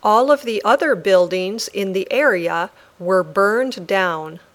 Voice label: Dictation